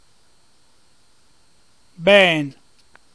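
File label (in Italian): "Bèṅ" Emilian dialect word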